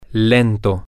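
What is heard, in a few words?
slow, Spanish, male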